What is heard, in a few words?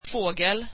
swedish bird